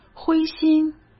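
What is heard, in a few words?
"灰心", Mandarin Chinese; chinese flashcards; hsk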